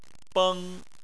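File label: "beng" Chinese, first tone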